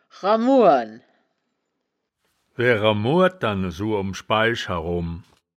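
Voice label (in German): "ramuren", wittlicher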